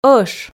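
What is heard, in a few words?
"ӧш", Komi, female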